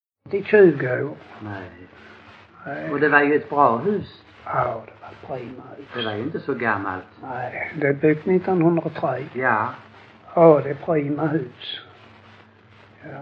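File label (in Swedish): Lackalänga Skåne, Svenska sagor dialekter; Folkminnen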